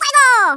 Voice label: "fire", Hispanic; Worms Armageddon

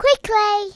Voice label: hurry, Speech Kidz; Worms Armageddon

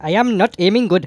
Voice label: "oops", Speech; Worms Armageddon; The Raj

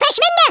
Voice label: GO AWAY, Speech, German; Worms Armageddon

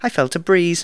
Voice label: "missed", Speech; Worms Armageddon

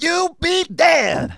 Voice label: "FATALITY", Speech, Soul Man

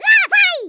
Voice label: "INCOMING", Portuguese; Worms Armageddon

